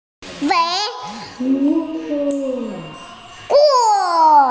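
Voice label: "fertile", Mandarin, hearing-impaired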